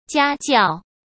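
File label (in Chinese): "家教", Mandarin; chinese-flashcards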